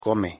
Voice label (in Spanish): "come", pronunciation es